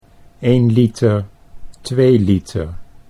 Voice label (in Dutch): "1 liter 2 liter", Dutch